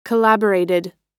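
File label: "collaborated", American English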